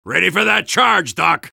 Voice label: soldier activate charge; vo; tf2

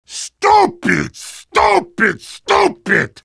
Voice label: jeers; heavy

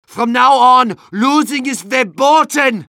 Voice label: medic jeers; vo; tf2

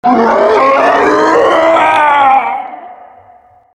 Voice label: knight dying, halloween boss; tf2; garrysmod

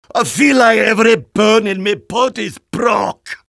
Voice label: jeers; demoman; Garry's Mod; tf2 content

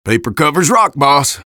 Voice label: eng taunt rps win; vo; taunts; tf2; engy

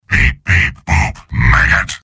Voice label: soldier robot; mvm

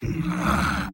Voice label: sniper negative vocalization; tf2; mvm

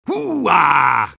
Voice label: soldier cheers; vo; tf2

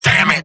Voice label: engineer negative vocalization; tf2; mvm

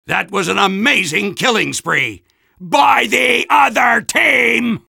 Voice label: soldier jeers; tf2

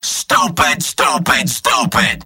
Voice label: scout negative vocalization; tf2; mvm

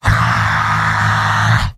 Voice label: battlecry, heavy; tf2; garrysmod; mvm

